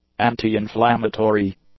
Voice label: "anti-inflammatory", English; adjectives

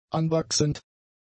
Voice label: "anwachsend", German; adjectives

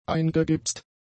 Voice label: "eingegipst", German; adjectives

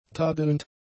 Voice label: "tadelnd", German; adjectives

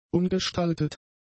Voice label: "ungestaltet", German; adjectives

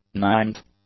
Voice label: "ninth", english; adjectives

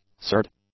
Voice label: "surd", English; adjectives

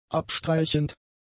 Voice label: "abstreichend", german; adjectives

